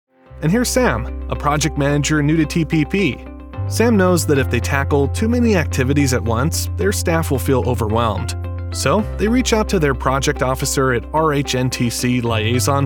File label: ELEARNING